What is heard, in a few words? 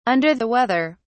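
"under the weather", British English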